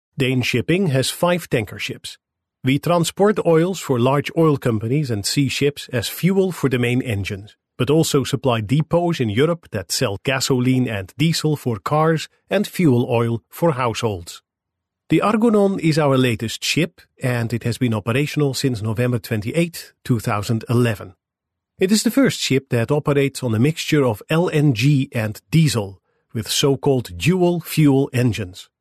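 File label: Dutch Male English Sample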